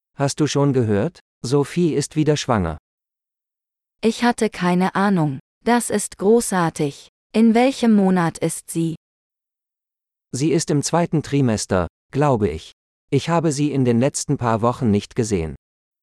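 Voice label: Babies - Dialogue, German; de-en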